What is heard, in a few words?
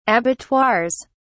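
"abattoirs", British English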